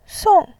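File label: "song", Mandarin Chinese, fourth tone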